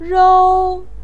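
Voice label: "rou", Mandarin Chinese, first tone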